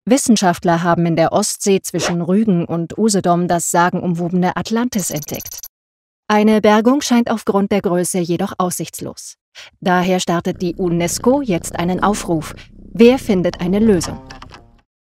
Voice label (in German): nachrichten; Berlin; Muttersprache Deutsch